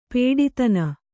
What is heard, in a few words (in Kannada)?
"ಪಡಿದನಿ", kannada